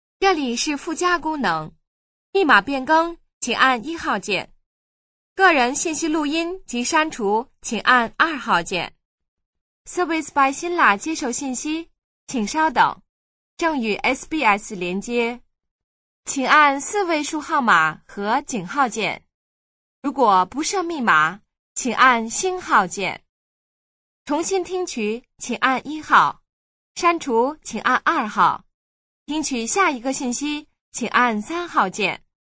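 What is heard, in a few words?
Chinese, female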